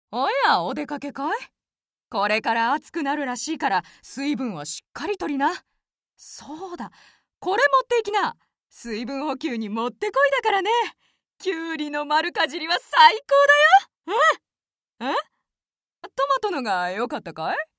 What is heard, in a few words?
A caring aunt